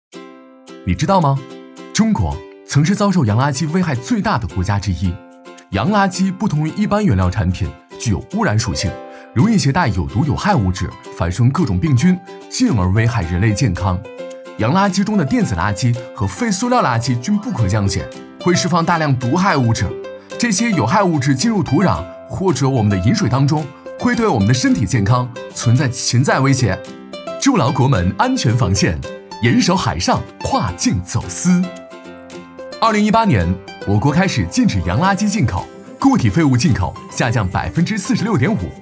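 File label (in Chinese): 男国大音-MG动画-环保局打击走私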